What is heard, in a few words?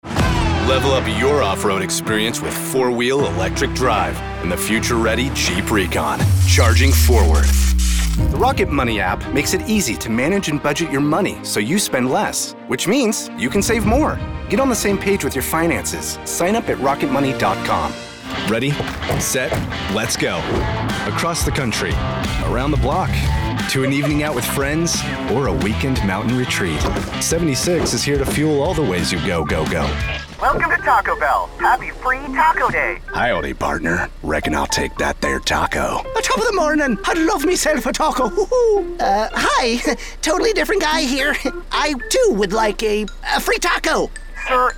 commercial, english male voices american accent